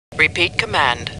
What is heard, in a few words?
Star Trek Computer Voice; StarTrekLCAR